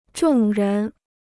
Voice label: "众人", Chinese